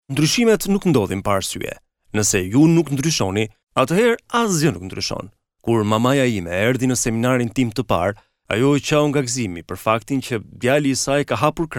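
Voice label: Albanian, male, voice over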